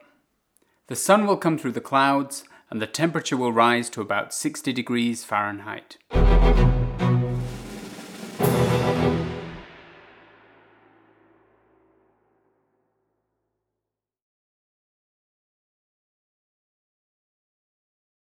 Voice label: weather forecast; Fremdsprachen